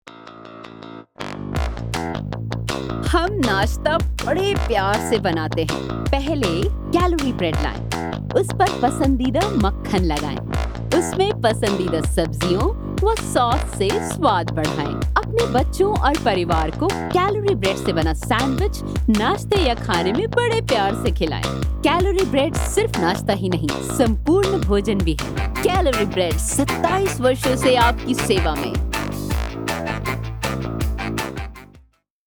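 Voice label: hindi, Weiblich; India; Als Voice-Over-Künstler nehme ich auf Englisch und Hindi auf.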